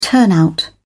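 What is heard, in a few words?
"turnout", British English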